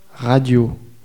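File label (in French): "radio", French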